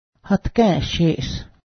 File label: fan sports, Cayuga; hatgę́ˀse̱heˀs